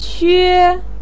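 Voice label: "que", Chinese pinyin, first tone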